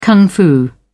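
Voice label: "kung fu", British English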